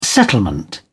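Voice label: "settlement", British English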